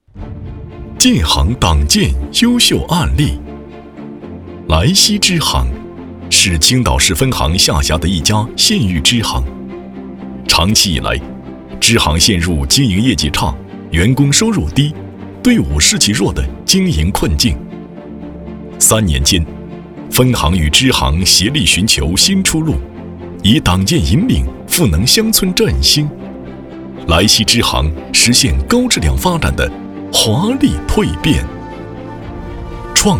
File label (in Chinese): 男, 党建汇报类; 中国建设银行